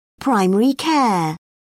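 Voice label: "primary care", British English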